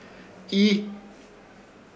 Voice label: "Ii", Ishir Chamacoco; buscar